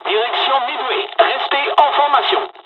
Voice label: dialog, dialogs french; midway; War Thunder

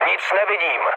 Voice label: searchlite, speech cze, mood med; War Thunder

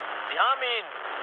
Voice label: aircraft destroyed, mood low, speech ge

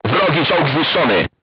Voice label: voice message target destroyed, polish

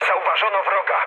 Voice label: enemy detected mood med, speech pol; War Thunder

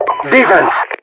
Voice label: defense, voiceovers

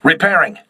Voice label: voice message repairing, crew ships english

